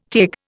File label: "Taig", Indian